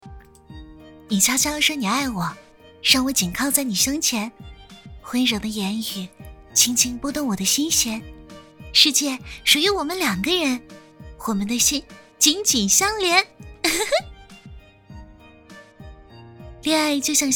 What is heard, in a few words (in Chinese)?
短视频 恋爱文案